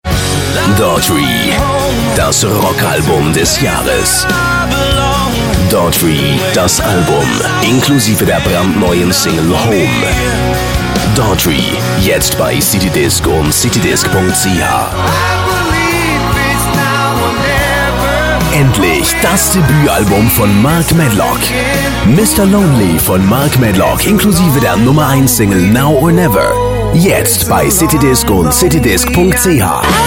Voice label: High German

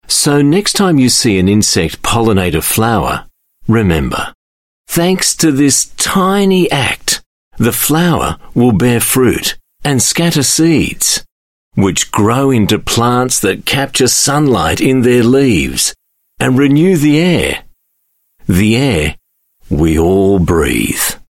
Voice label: AU Mid Atlantic English, Australian, Male; GBTB GREEN WORLD

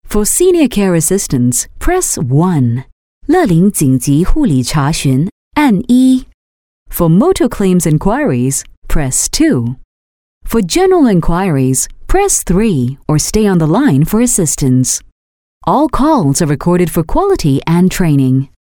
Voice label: Asian English IVR VO

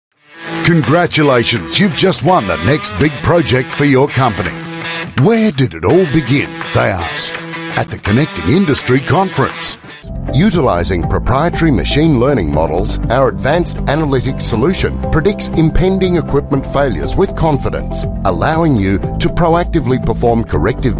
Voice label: AU English Industrial; Industrial Samples

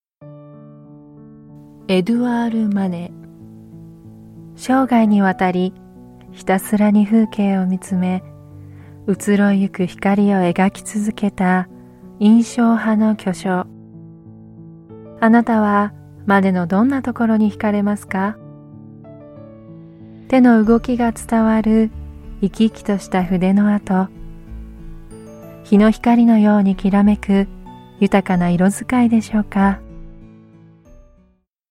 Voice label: Japanese Audiobook

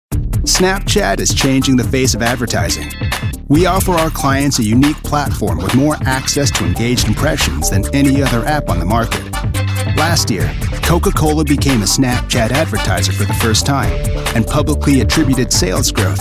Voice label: US English Corporate Narration

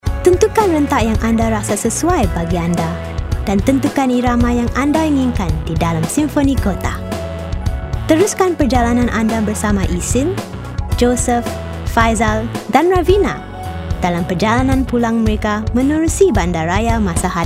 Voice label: Malay Female Corporate

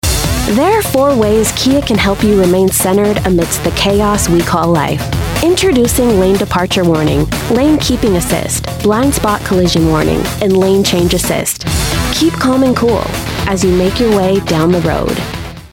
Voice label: US English; Kia

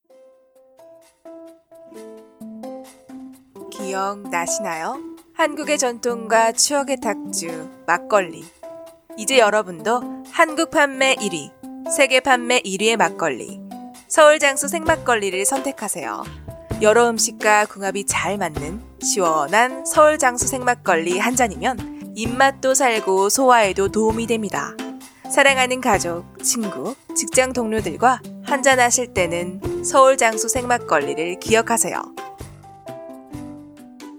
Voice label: Korean Corporate Video

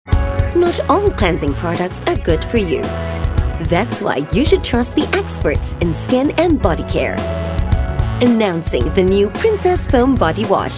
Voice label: Asian English Bodycare

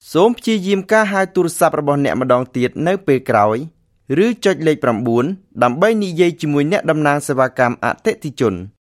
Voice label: Khmer Male IVR

